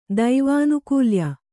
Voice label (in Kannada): "ದೈವಾನುಕೂಲ್ಯ", kannada